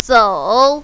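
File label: "zou" third tone, mandarin, female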